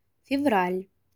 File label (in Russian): февраль, русский